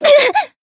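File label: Voice lancer damaged, Japanese; violet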